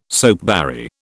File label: "soapberry", British